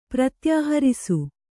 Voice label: "ಪ್ರತ್ಯಾಹರಿಸು", Kannada

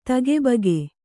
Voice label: "ತಗಿಬಿಗಿ", Kannada

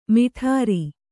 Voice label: "ಮೇಢ್ರ", Kannada

